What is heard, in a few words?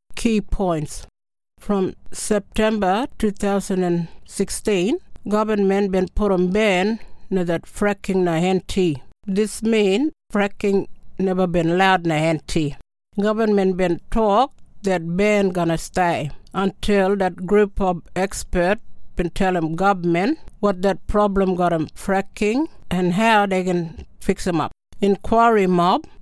KRIOL